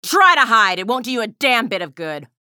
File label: chatter neutral; athena